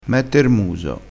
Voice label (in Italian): "mette r muso", dialettale crespinese